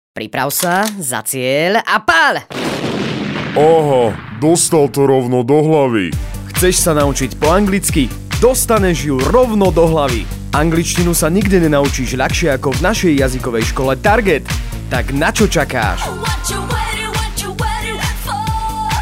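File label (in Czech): reklami spot; anglictinarovnodohlavy; jazykovaskolatarget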